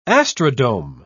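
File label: "astrodome", British English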